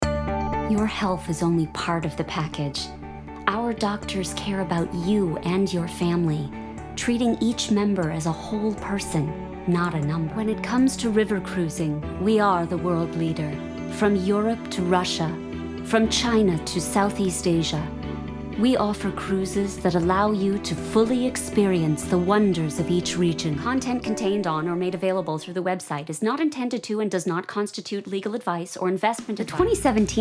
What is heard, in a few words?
Commercials; Commercial Voice Over Reel